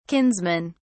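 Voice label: "kinsmen", British English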